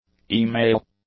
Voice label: "e-mail", English; nouns